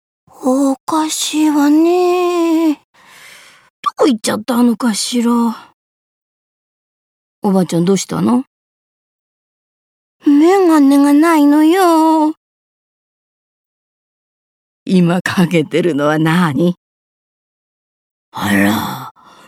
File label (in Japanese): 祖母と孫の会話